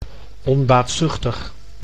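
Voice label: "onbaatzuchtig", Dutch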